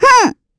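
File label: Vox Deny, Korean; Estelle; kingsraid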